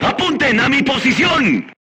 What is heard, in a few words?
voice message art command oneself, crew tanks spanish